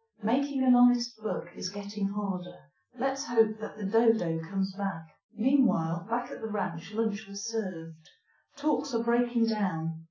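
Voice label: female speech; SiSEC10; underdetermined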